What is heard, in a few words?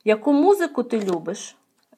dialogue, Ukrainian